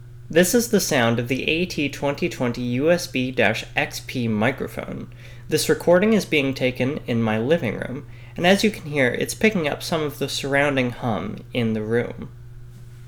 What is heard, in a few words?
No Noise Reduction; AT2020USB-XP